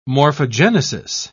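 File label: "morphogenesis", British English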